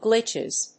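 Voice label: "glitches", English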